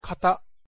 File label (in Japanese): "型", pronunciation ja; KATA